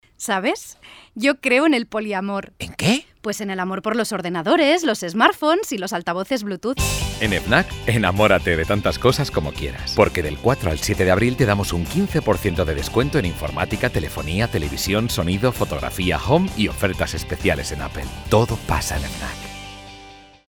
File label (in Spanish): CUÑA CASTELLANO